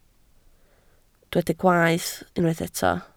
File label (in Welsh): "gwaeth" Welsh